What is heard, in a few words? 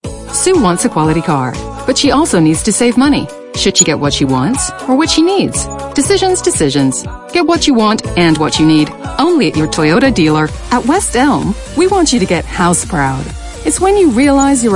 American Commerical